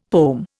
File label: "pome", Indian; Dictionary